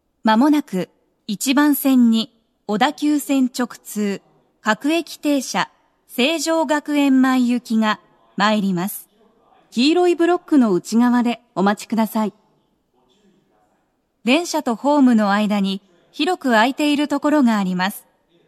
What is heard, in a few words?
local seijogakuen mae, station, tokyo metro